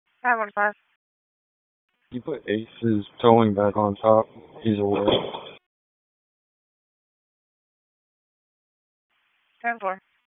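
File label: Police Southeast District Dispatch; Raleigh; wake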